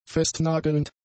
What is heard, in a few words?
"festnagelnd", german; adjectives